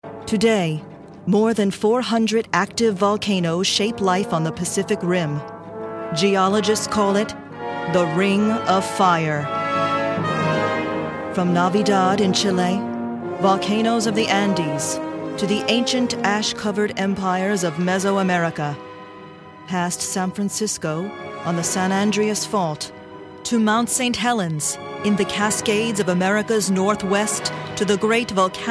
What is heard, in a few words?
Narrations